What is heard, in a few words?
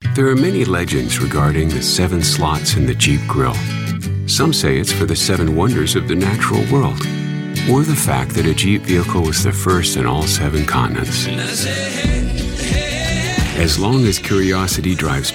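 M, English, Canadian, male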